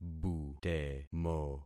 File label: "butemo", concat syllables; Affixing experiment